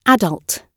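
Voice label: "adult" British English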